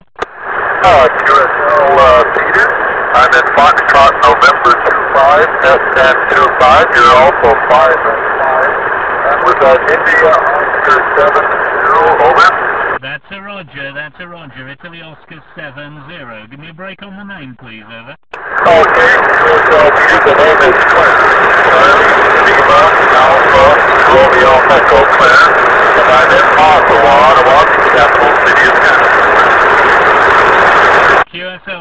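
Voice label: amateur radio contact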